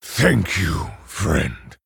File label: Male OrcOgre Thanks